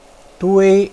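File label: "dui1", Mandarin Chinese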